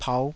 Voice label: "pauh5", taiwanese